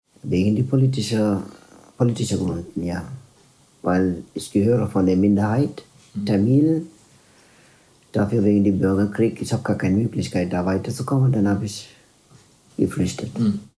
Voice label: tamilisch sri lanka

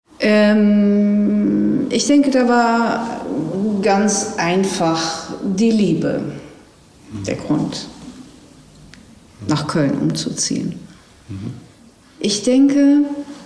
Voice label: niederlaendisch f

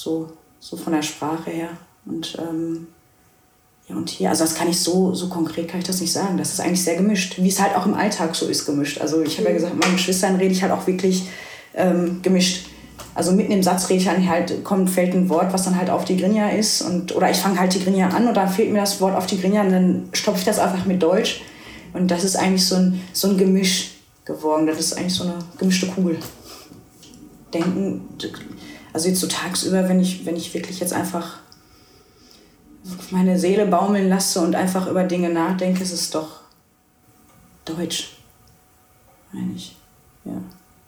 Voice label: tigrinya eritrea

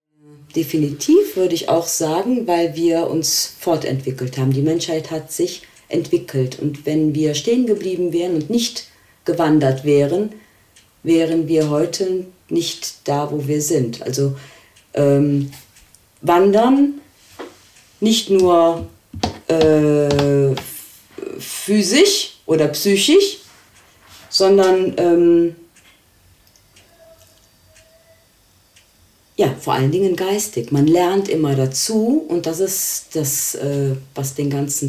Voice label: deutsch italien

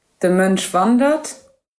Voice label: luxemburgisch

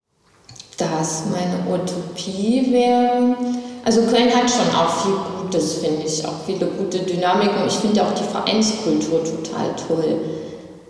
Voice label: thueringisch

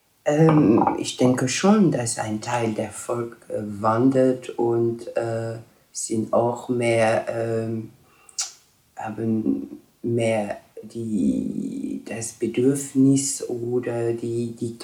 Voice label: franzoesisch

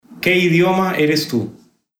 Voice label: spanisch costa rica

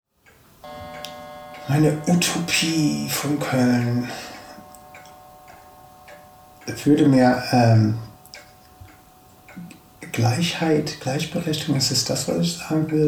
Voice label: us englisch

